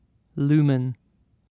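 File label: "lumen", British English; pronunciations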